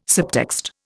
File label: "subtext", Indian